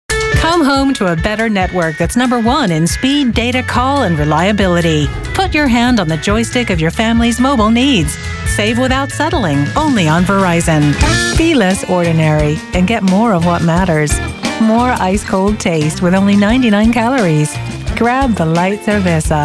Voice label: Commercial Compilation